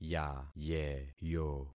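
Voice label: "yayeyo", concatenated syllables; Affixing experiment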